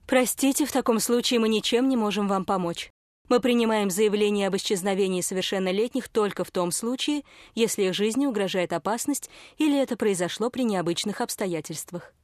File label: policewoman